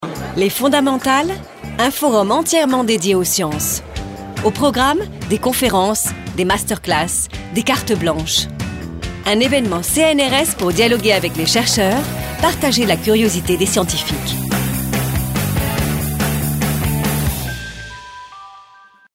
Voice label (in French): Spot Radio Punchy; Les Fondamentales; CNRS; Forum